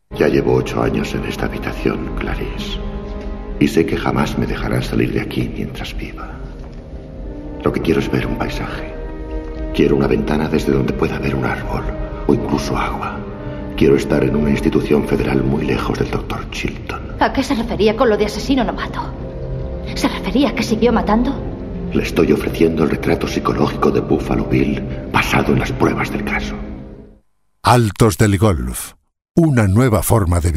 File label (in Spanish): Masculino; Sonoarte